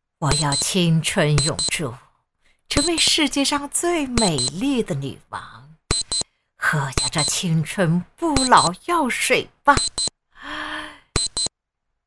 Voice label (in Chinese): 模仿,女巫,我要青春永驻